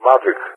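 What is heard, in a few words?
"Magic", English, GREECE; CallSign; Allied Flight; DCS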